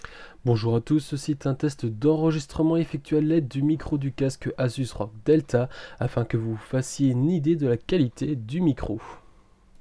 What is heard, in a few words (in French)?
test micro asus rog delta